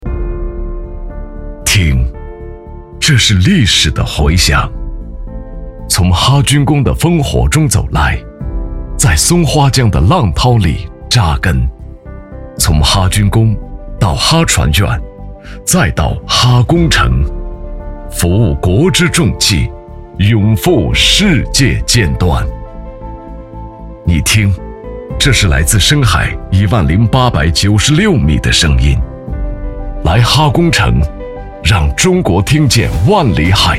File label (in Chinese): 男 专题; 哈军工